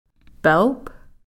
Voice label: "pelph", swan

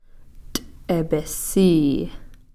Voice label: "t'ipisii", ant

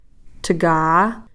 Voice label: "thkaa", Cow Creek; field

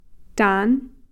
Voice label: "tan", rock

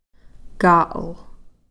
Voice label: "ka'l", bow (for arrow)

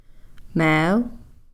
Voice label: "meel", crow

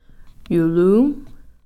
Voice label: "yulum", eagle

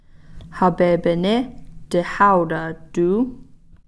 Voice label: "hapeepini-tihauta-tuu", Cow Creek; good-afternoon

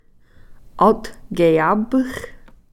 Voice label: "althkeyapx", round

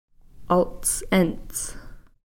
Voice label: "alts'e'ns", pink

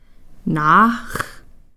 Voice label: "naax", Cow Creek

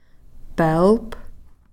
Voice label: "peelph", Cow Creek; camas-root-string-toy

